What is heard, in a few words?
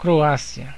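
"croatia", Brazilian Portuguese; gcompris; geography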